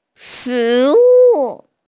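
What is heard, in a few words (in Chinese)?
"shi2wu4", Mandarin Chinese, female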